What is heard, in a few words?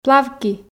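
"плавки", Komi, female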